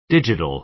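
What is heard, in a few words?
"digital", American English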